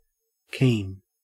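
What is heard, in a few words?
"keen", Australian English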